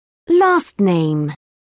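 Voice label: "last name", British English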